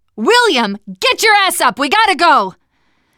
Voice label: revive friend loud, teen girl